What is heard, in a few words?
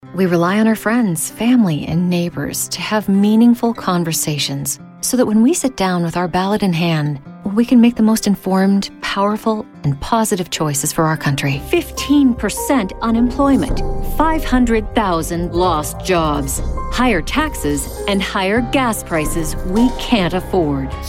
Political Commercial, female voice over